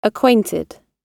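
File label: "acquainted", British English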